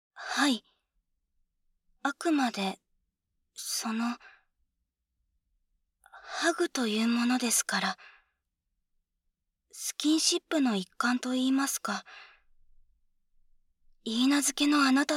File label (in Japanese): 左 声のみ